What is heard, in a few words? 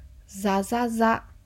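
"za za za", Japanese; manga onomatopoeia sound effects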